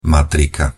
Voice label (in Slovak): pronunciation sk matrika